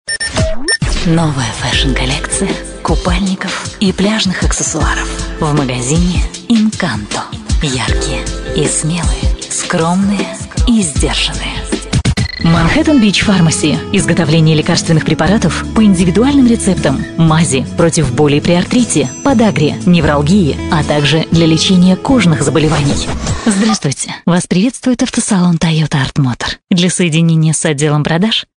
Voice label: Russian female